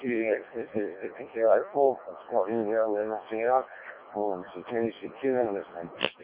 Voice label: decoded speech, 7177KHz